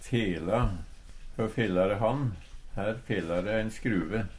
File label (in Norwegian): "fela", Numedalsmål; feilar, manglar; Uttrykk; Hø fela de han? Her fela de ein skruve.